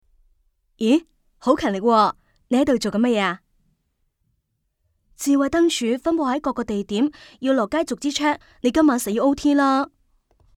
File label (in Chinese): Y女 粤语角色 年轻女声